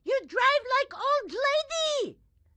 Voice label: grandma chopper annoyed; Blind Drive

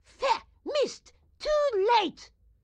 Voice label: grandma chopper missed; Blind Drive

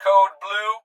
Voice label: Hospital Pa Voice; Blastwave

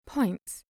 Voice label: "points", voice overs

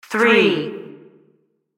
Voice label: Announcer; Pitch Black Audio Pong

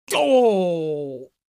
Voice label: Doooh Reaction Male; Vocals & Wallas; 1001 Sound Effects

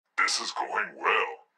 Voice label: phone good well; Blind Drive

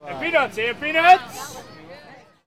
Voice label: Baseball Crowd Vendor; SFX Kit; Crowds

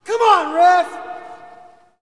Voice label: Hockey Male "Come On"; Hockey Vocal Sounds

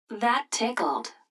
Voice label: GPS hit tickled; Blind Drive

